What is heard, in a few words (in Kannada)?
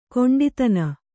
"ಕೊಂಡಿತನ", kannada